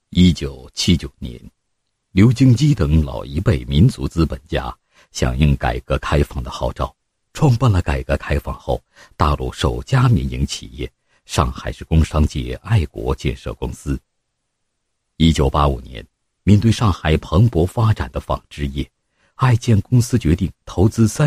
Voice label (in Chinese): 男 企业, 配音案例; 上海爱建纺织品有限公司